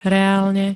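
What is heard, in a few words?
"reálne", Slovak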